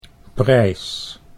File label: "prijs", Dutch